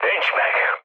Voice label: bomb failure, speech hun; War Thunder